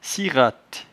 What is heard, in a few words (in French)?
"siratte", patois jura